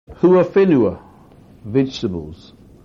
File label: hua whenua, maori words